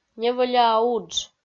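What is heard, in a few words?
"невӧляа удж", Komi, female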